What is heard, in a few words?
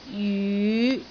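"jyu5", Cantonese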